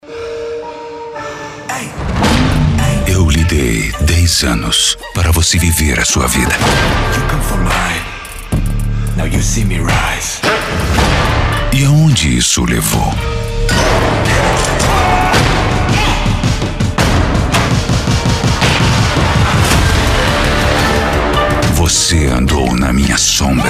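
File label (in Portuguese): Português - Brasileiro, Voz Padrão - Grave, Masculino; SHANG CHI - MARVEL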